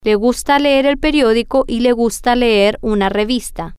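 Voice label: Spanish, female; he Likes To Read The Newspaper And He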